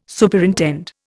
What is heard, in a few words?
"Superintend", Indian